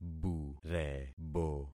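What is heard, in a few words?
"burebo", concatenated syllables; Affixing experiment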